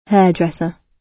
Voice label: "hairdresser", gb

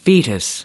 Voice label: "fetus", us

